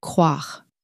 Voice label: "croire", French